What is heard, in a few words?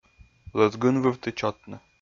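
"лӧз гын вывті чотны", Komi, male; kpv audio dict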